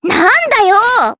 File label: Vox, jp; Miruru; kingsraid